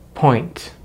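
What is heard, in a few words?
"point", American English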